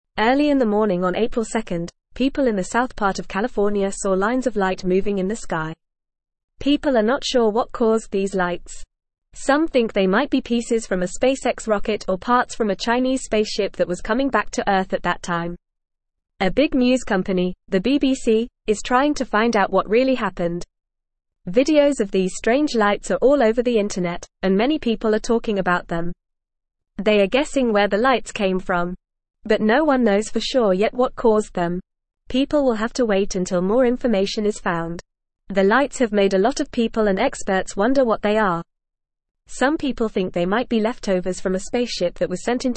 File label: English Lower Intermediate FAST Reading; Mysterious Lights in California Sky What Happened